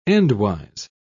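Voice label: "endwise", British English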